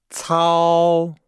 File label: "cao", Nihao